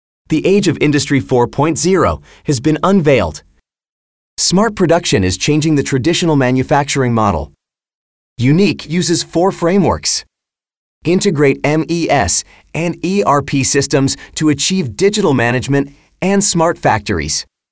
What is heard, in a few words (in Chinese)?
外籍男英 外语 美式英语 科技感 沉稳